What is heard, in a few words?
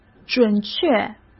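"准确", Mandarin Chinese; chinese flashcards; hsk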